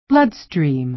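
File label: "bloodstream", American English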